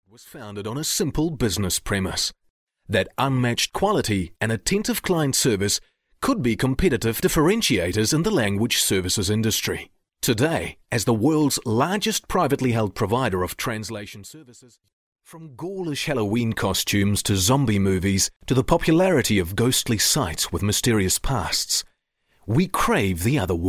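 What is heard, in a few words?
English New Zealand, male, voice over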